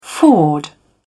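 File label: "ford", en, uk British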